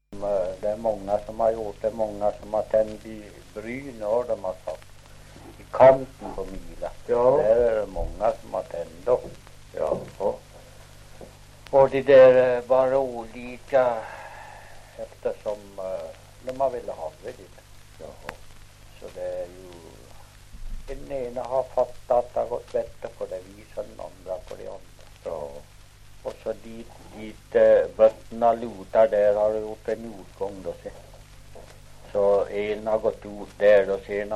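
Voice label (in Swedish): Ockelbo Gästrikland, dialekter; Folkminnen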